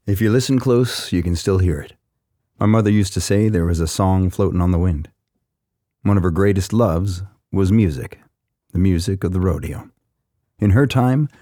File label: audiobook